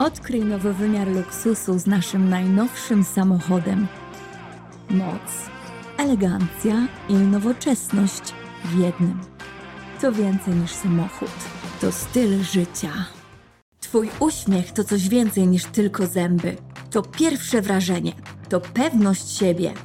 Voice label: Polish